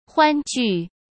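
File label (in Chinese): "欢聚", Mandarin Chinese; chinese flashcards; hsk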